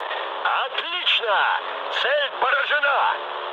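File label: Russian bomb success mood med, speech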